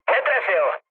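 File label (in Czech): Czech bomb failure, mood low; speech; War Thunder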